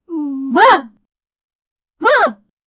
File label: short high qua, voice acting